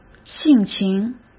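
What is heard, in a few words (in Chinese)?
"性情", Mandarin Chinese; hsk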